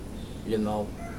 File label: five, Mokilese